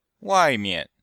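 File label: "wai4mian5", Chinese; Cours-de-Chinois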